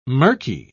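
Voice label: "mirky", British English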